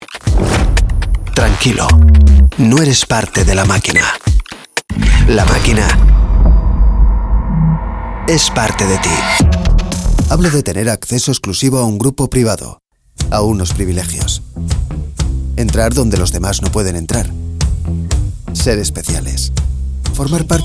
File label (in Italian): spagnolo